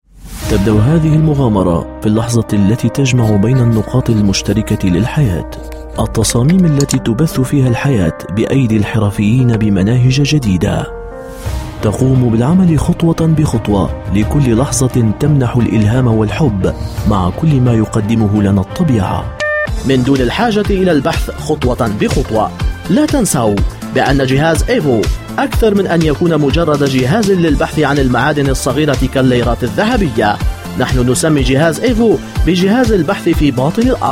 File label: arabic voice over male commercial; Fasih Arapça; Fasih Arapça Dublaj; BiberSA Prodüksiyon